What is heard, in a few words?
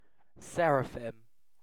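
"seraphim", British English; pronunciations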